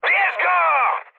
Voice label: attack position mood high, speech pol